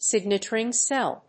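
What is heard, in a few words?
"signet ring cell", English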